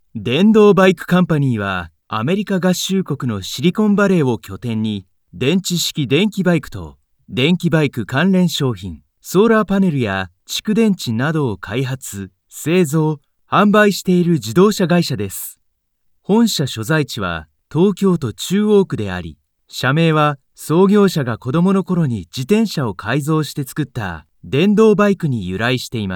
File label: Corporate Narration calm